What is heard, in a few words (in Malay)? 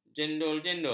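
"jendal-jendul", Malay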